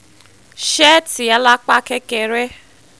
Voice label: "seeti alapa kekere", Yoruba